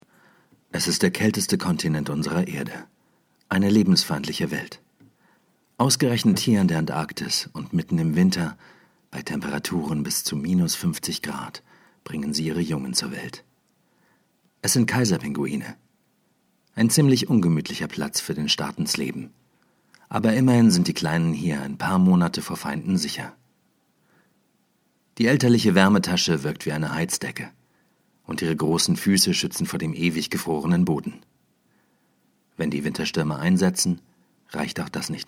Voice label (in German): naturdoku pinguine sprechprobe